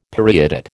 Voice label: "periodate", American

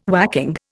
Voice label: "whacking", Indian

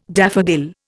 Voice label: "daffodil", Indian

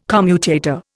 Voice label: "commutator", Indian

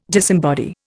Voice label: "disembody", Indian

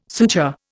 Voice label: "suture", Indian

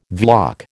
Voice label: "Vlach", American

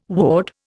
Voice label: "woad", Indian

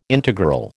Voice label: "integral", American

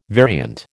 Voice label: "variant", American

